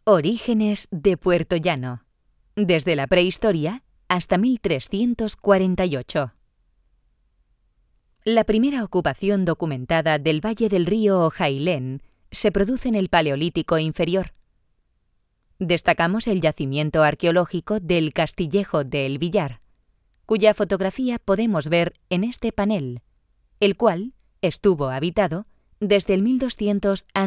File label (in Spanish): audioguia; audioguia museo de la mineria; esp